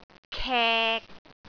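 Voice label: "kek6", Cantonese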